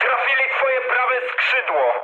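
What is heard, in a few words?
right wing, mood low, Polish speech; War Thunder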